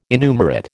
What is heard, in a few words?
"innumerate", American